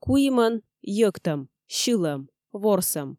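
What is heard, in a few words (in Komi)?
"куимӧн сьылӧм йӧктӧм ворсӧм", Komi, female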